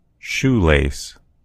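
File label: "shoelace", American English; gcompris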